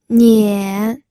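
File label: "nian", third tone, pinyin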